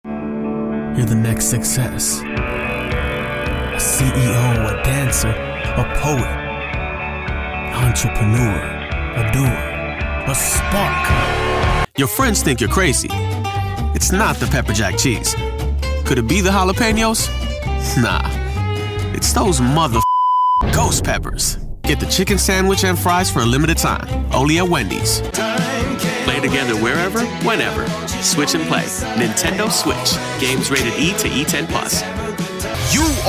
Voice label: Commercial, voiceover